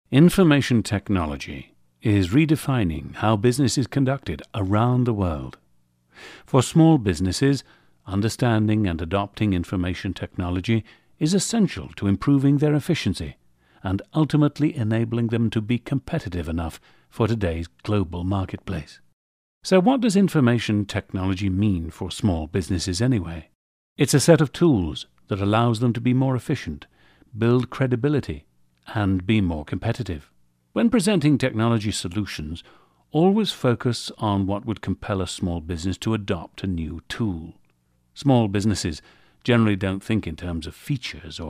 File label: Brit